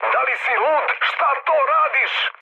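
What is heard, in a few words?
Serbian player killed ally mood med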